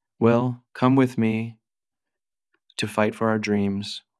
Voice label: Voice Acting es M